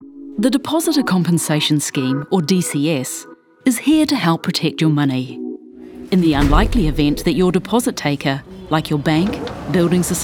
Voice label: Natural, en-NZ

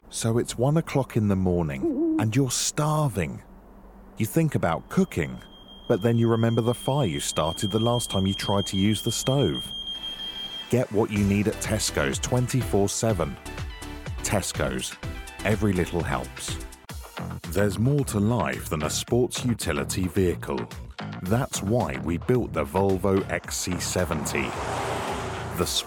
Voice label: Commercial

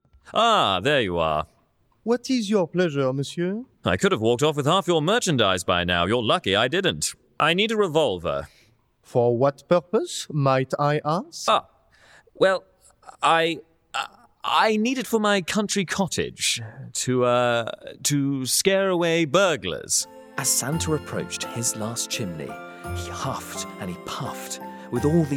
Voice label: Voice Actor; BigMouthVoices

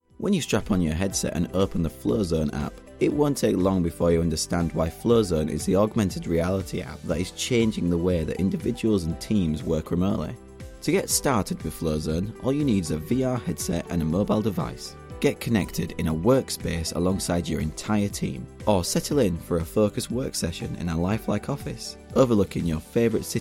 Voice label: Elearning Corporate Voice

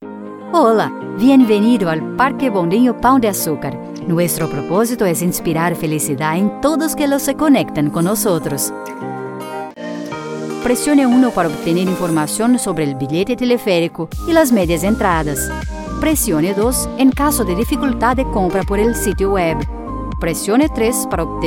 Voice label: IVR, ES; Parque Bondinho Pao de Acucar

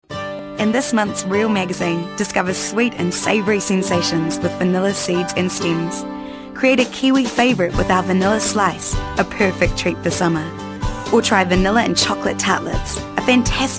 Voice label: Voice Actor; BigMouthVoices

